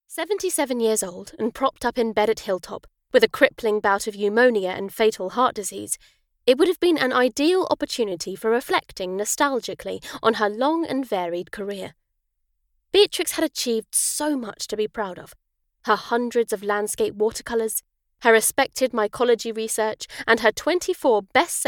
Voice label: Narration Non-Fiction

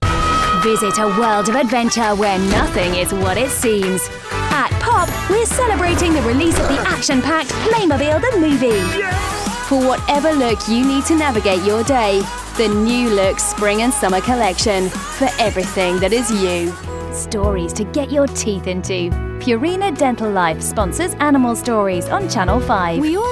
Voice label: Commercial

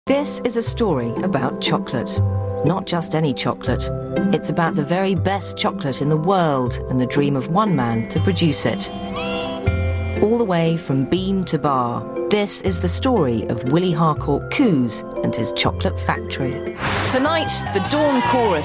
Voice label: Narration

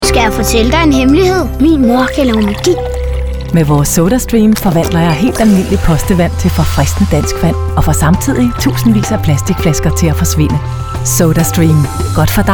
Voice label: Commercial

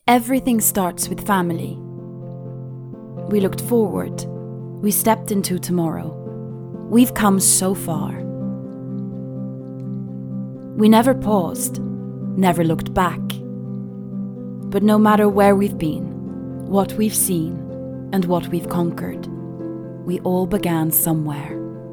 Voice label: Accent

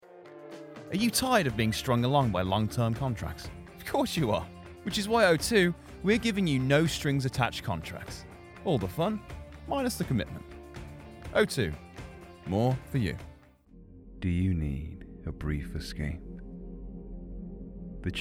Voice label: Commercial

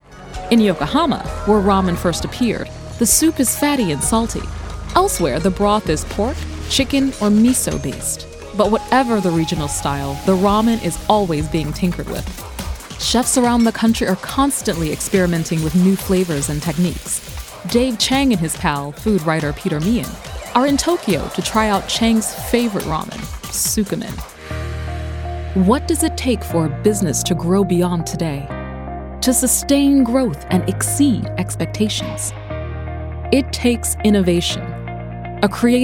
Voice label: Narration

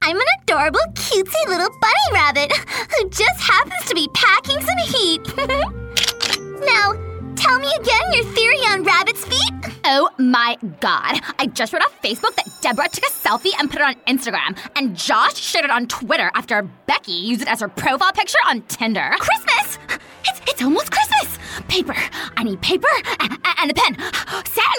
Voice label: Animation

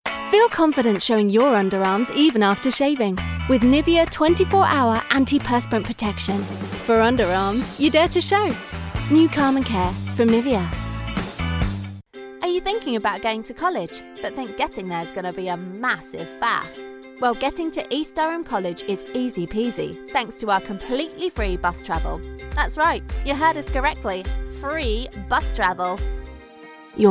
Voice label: Commercial

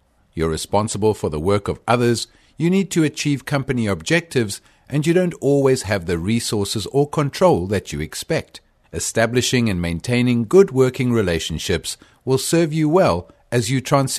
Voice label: E-Learning

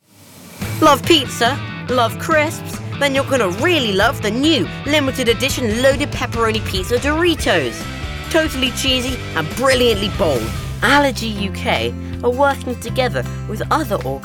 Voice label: voice over

